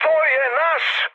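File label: Serbian player friendly fire mood high; War Thunder; speech srb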